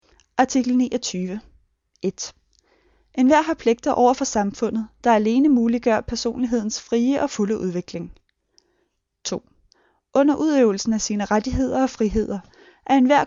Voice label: Danish